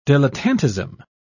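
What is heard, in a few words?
"dilettantism", British English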